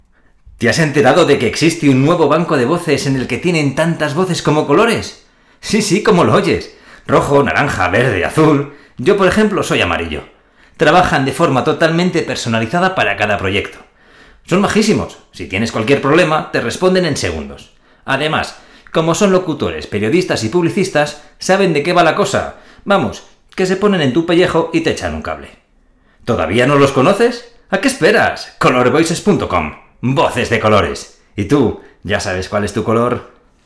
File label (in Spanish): Hombre Publicitario